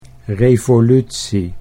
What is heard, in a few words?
"revolutie", Dutch